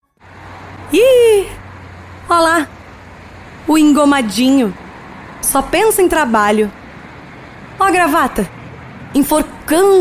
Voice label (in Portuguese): Locução Natural